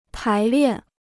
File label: "排练", Chinese